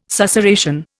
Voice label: "susurration", Indian

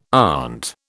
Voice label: "aunt", British

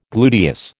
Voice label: "gluteus", American

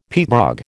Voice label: "peat bog", American

